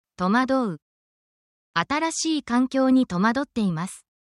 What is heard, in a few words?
feelings in japanese